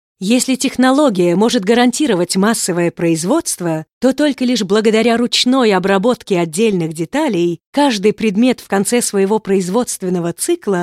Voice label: Russian Voice Over animated hot